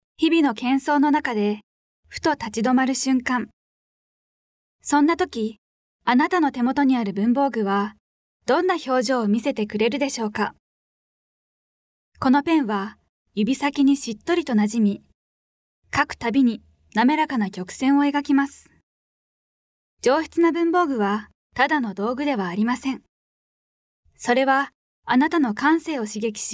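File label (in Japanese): ナレ, ボイスサンプル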